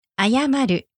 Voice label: "ayamaru", Japanese; to apologize